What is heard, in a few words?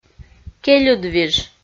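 "кельыдвиж", Komi, female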